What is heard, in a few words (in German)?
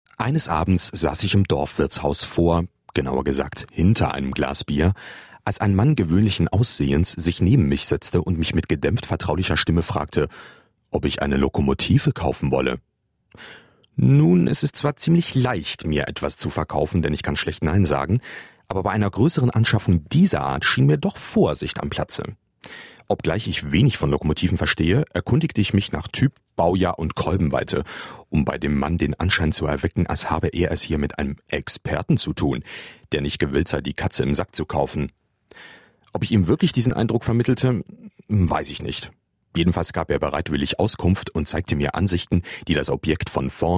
hoerbuch